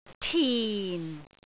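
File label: "tin4", Cantonese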